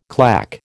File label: "claque", American